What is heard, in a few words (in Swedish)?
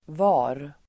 "var", Swedish